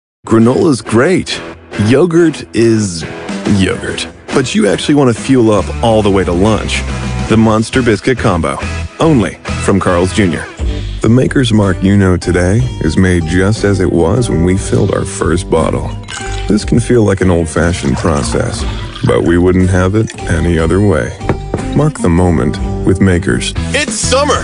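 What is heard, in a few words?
English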